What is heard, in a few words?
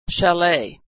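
"chalet", American English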